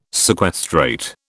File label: "sequestrate", British